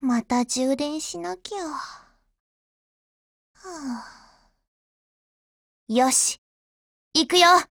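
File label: FORMATION Japanese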